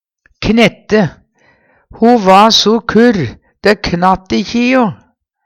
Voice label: "knette", Numedalsmål; Verb; Ho va so kurr, dæ knatt ikkje i'o.

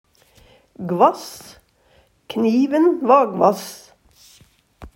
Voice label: gvass, Numedalsmål; Kniven va gvass.; Adjektiv

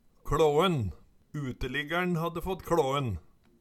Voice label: kLåen, Numedalsmål; Uteliggærn hadde fått kLåen

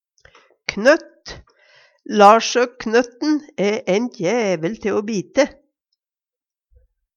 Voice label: knøtt, Numedalsmål

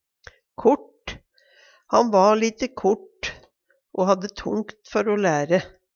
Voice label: kort, Numedalsmål; Adjektiv

